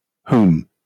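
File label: "whom" English, British; pronunciations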